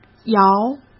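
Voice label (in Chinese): "摇", Mandarin; chinese flashcards; hsk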